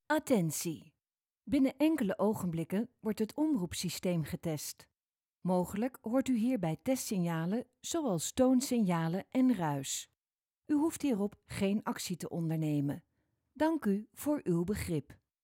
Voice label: Dutch Female

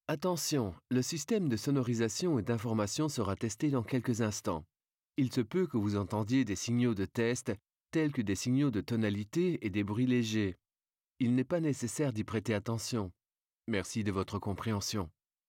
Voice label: French Male, Speech Samples

